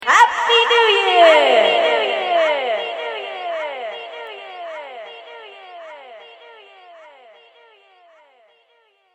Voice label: Happy New Year Voice Echoes; New Years Eve Sound Effects; human voice sound effect